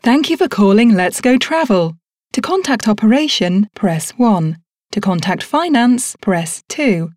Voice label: telephony

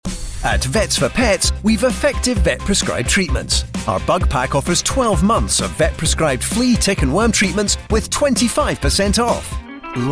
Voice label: Commercial Montage

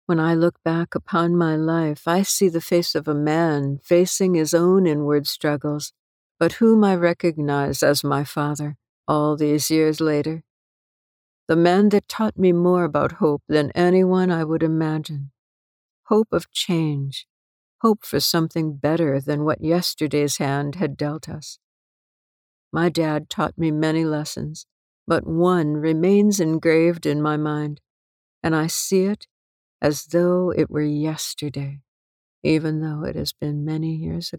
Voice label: Audiobook excerpt; RESILIENCY

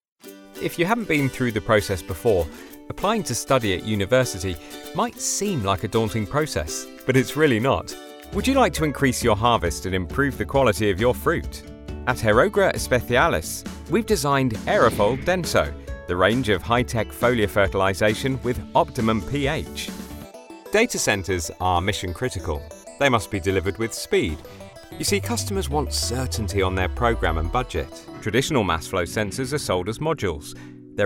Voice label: Corporate

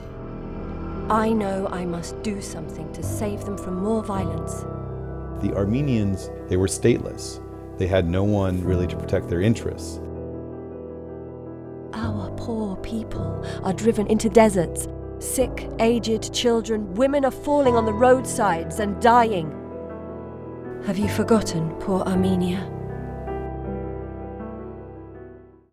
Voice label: Trailer; The Stateless Diplomat

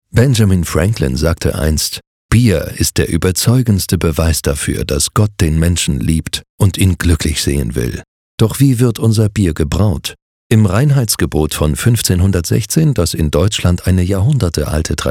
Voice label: DE Bierbrauen

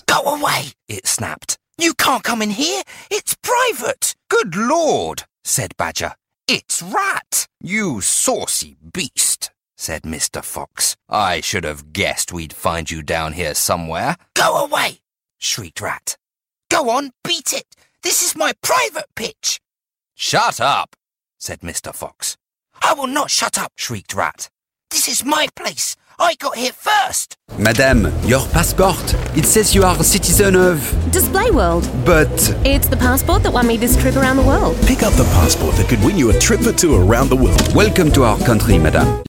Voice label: Character and accents

